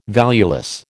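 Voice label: "valueless", American English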